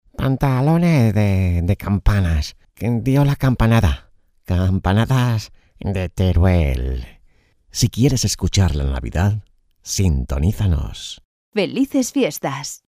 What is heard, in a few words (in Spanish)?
felicitacion navidad campanas locutores voiceovers; Felicitación "campanas", realizada por tres voces de locutores